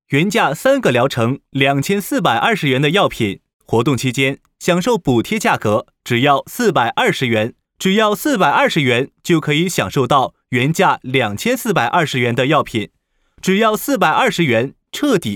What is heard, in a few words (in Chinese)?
男 促销干音 彻底康复男科病